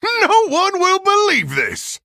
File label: Human Emote Wow; Reno Jackson